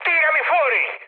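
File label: Italian bailout mood high, speech; War Thunder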